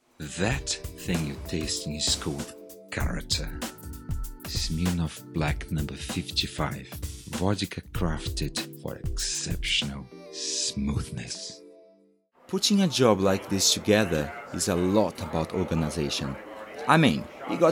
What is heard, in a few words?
male, Brazilian Portuguese, English with accent compilation